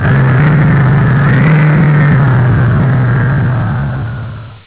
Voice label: swamp monster; Motorola MPX200